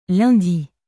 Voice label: "lundi", french